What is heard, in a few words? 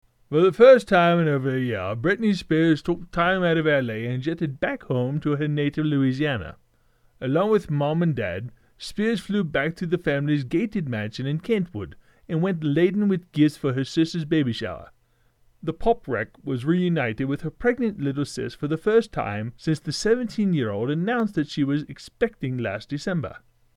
genuine uk accent; UK/Commonwealth Male Accent